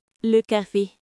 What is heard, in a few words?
cafe in french